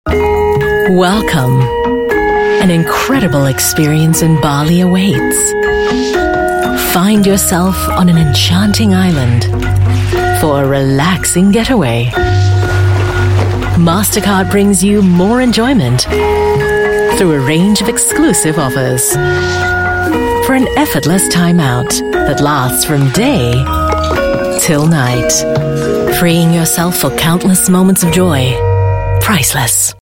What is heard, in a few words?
Asian English; Bali